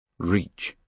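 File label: "reach", British English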